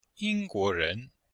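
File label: "ying1guo2ren2", Chinese; Cours-de-Chinois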